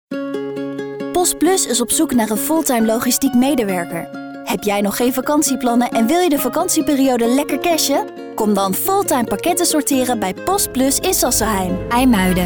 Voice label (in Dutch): Corporate Lang